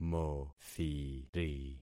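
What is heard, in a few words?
"mothiri", concatenated syllables; Affixing experiment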